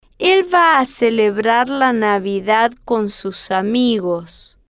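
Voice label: el Va A Celebrar La Navidad Con Sus Amigos, Spanish, female, slow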